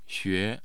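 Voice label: "xue2", Mandarin Chinese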